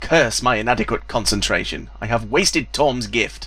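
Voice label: female spell; nwnds audio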